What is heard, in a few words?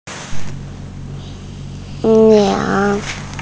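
"bu4", Mandarin Chinese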